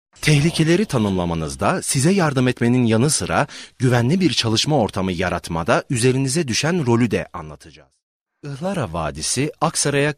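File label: Turkish, male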